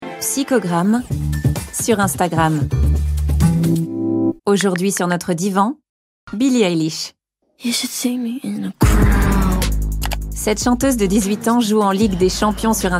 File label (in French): narration VF; ARTE; Magculturel